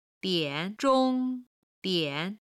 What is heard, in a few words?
"dian3 zhong1 dian3", Chinese; chinese words; 時間に関する中国語表現